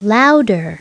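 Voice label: "louder", English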